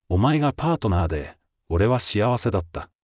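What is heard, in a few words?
anime, Japanese, Hilarious Anime Quotes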